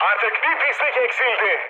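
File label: player killed enemy, mood high, Turkish; speech; War Thunder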